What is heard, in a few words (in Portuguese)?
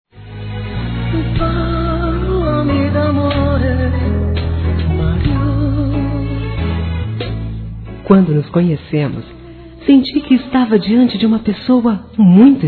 Nosso Noivado Feminino; Telemensagens; Parabenização